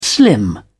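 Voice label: "slim down", British English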